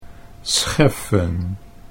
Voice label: "scheffen", Dutch